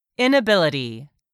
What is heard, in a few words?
"inability", English word; 3000 words